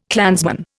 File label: "clansman", Indian